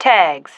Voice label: "tags", female